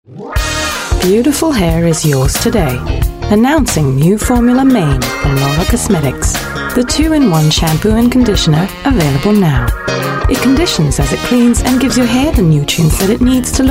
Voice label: Asian English